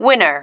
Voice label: "winner", female